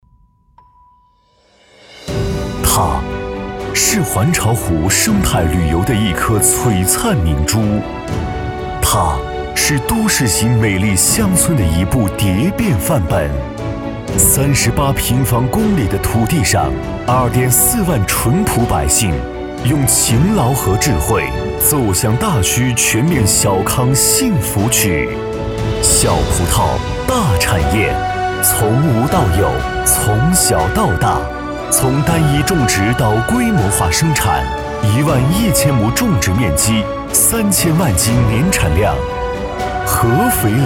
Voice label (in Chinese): 专题乡村振兴干脆